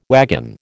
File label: "wagon", American